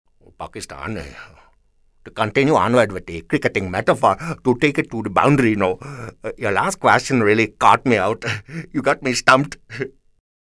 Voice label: PAKISTANI